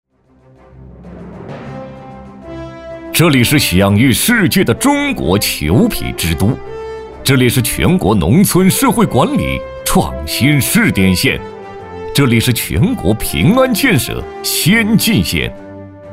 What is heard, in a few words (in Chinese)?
男 专题 招商引资 大气 激昂, 国语男声配音; 肃宁